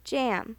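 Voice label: "jam", English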